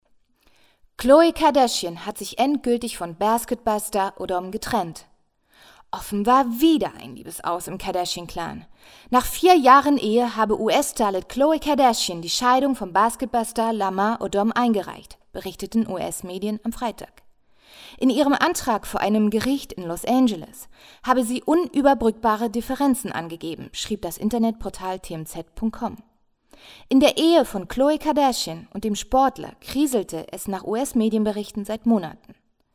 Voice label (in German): Nachrichten